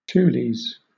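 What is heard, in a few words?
"tules", British English; pronunciations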